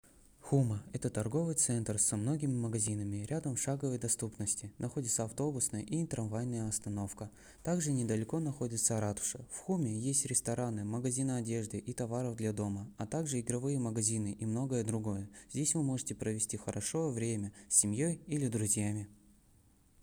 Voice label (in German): Russisch